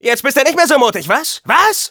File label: taunts, de